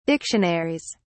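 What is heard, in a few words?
"dictionaries", British English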